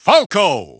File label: English Announcer; SSBB